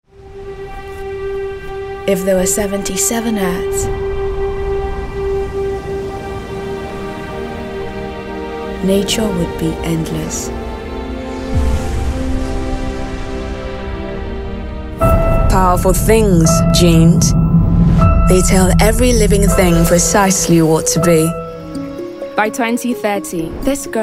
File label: english african commercial; Anglais (Africain); France